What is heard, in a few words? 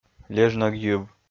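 "лежнӧг йыв", Komi, male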